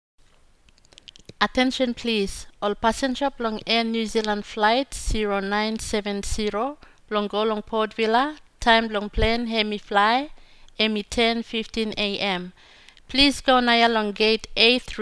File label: bislama female